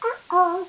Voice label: uh oh